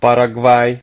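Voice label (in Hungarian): "paraguay", Hungarian; gcompris; geography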